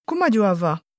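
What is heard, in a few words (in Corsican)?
cumu aghju da fà, Moita Verde – nord; Comment vais-je faire ?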